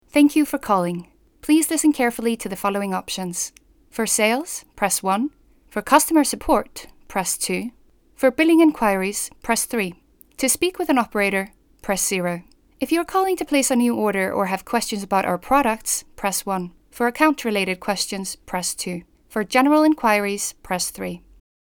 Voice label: IVR